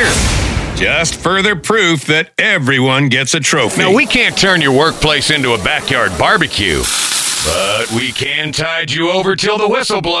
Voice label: radio imaging men country